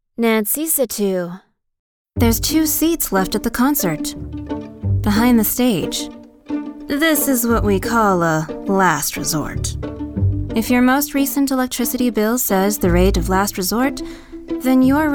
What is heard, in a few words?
Commercial